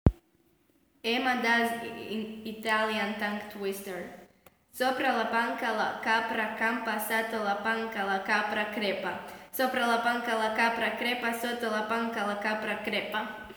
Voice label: Italian